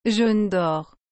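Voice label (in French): "jaune d'or", French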